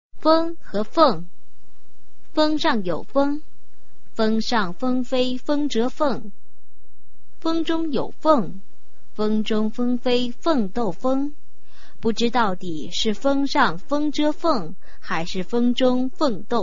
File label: chinese tongue twister